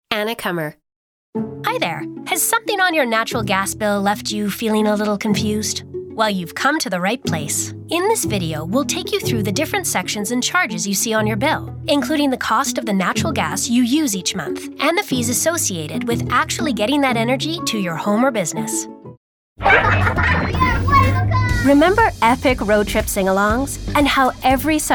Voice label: Commercial